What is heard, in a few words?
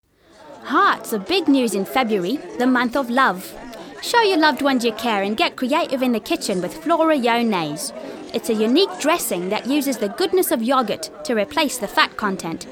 cockney